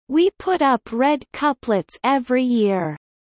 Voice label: Kelly low; spring festival